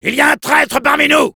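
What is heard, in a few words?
French; Soldier; cloaked spy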